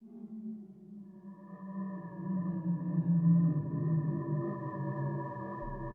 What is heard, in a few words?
Ghost Voice; FX